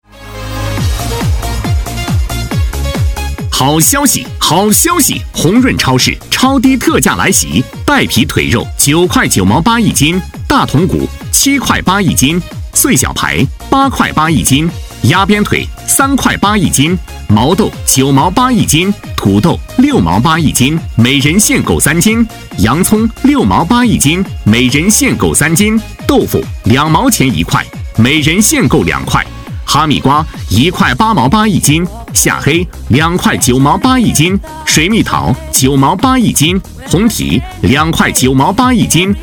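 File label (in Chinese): 激情促销超市; 鸿润超市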